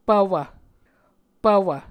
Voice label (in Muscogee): "pvwv", Muscogee